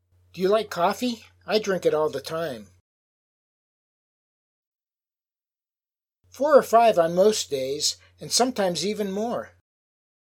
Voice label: Natural Dialog